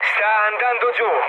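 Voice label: Italian aircraft destroyed mood low, speech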